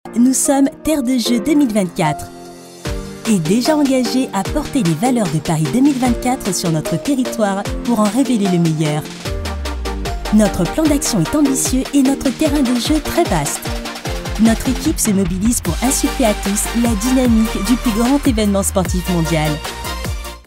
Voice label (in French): dynamique souriant, voix off; JO 2024